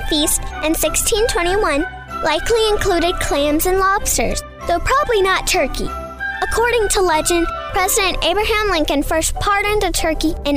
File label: kids commercial; Sweet and sassy. Easy to direct and aims to please.